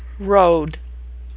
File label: "road", pronunciation; a fable by Aesop